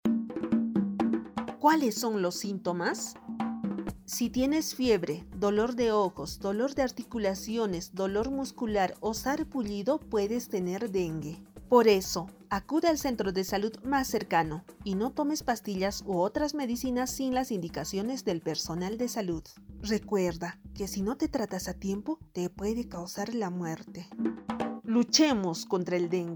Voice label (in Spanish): castellano sintomas del dengue, spot de radio; sospecha o confirmacion de contagio